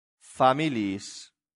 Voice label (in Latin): "familiis", latin; santillana